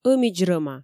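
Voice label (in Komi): ӧмидз рӧма, Komi, female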